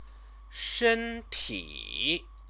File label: "shenti", Chinese; Chinese lessons